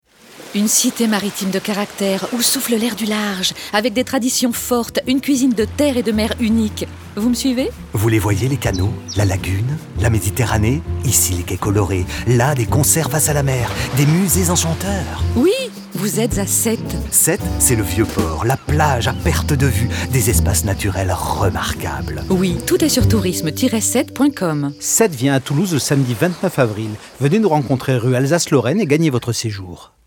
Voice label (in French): spot radio; sete; fb toulouse; herault